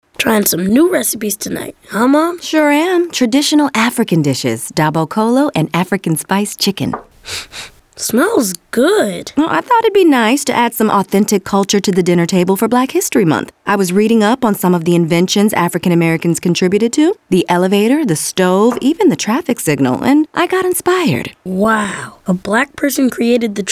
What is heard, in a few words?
Radio Spot; PUBLIX BHM